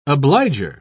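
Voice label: "obliger", British English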